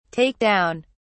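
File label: "take down", British English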